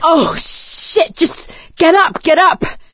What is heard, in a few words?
revive friend loud, survivor voice; source engine; left 4 dead 2; producer